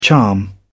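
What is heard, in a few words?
"charm", British English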